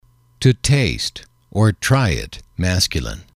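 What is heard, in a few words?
"to taste try it M", English, male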